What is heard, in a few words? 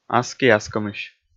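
"аски-аскомысь", Komi, male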